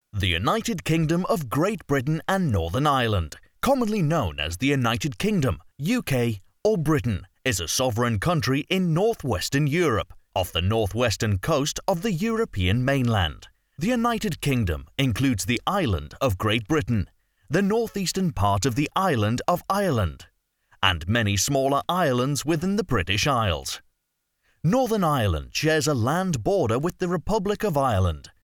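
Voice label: English UK Male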